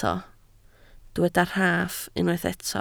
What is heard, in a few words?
"rhaff", Welsh